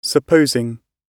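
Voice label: "supposing", British English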